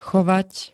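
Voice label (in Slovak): "chovať", Slovak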